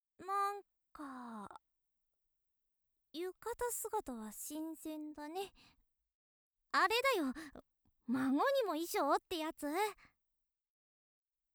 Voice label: 元気JK お祭りだよ

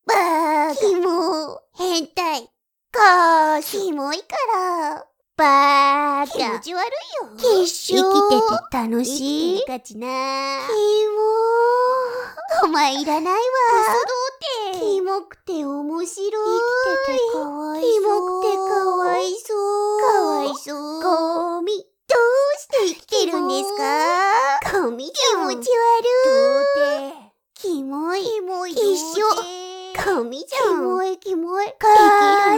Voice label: ひたすら罵倒

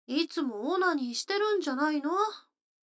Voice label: 女の子台詞, ASMR

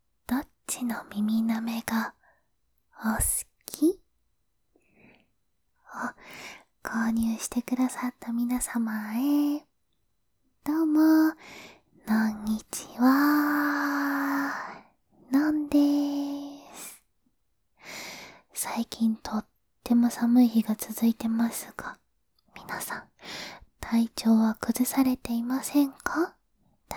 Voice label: フリートーク

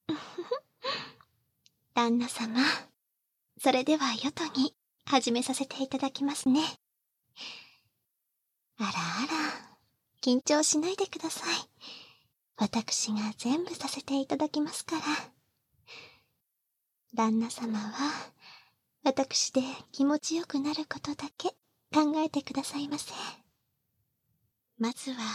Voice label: 内緒でお互いの気持ち良い所を（バイノーラルマイク収録 効果音あり小さめ）

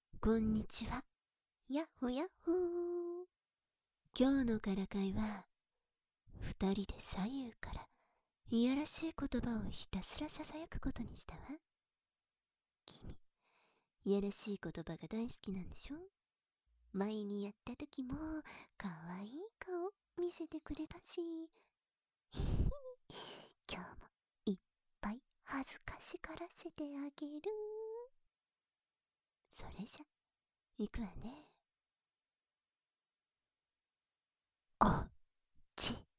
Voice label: 二人でえっちな言葉言ってあげる♪, バイノーラル; 性的にからかってくる隣の席の早乙女さん、と姫宮さん

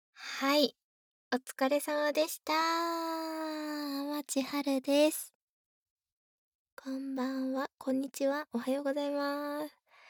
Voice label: フリートーク 左右反転, ASMR

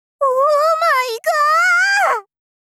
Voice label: お～まいが～～！！ 少女 高音域

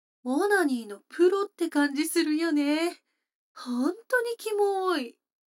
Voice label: 女の子台詞

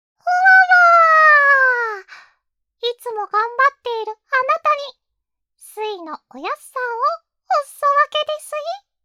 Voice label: 励ましボイス

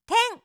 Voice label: 「てん」, 明るい声

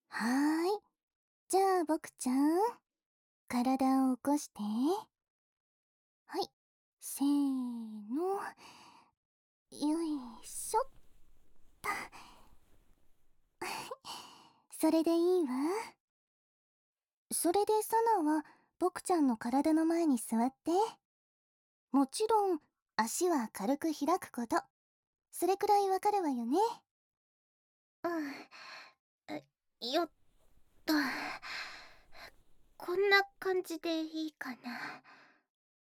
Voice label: くちゅ音無し

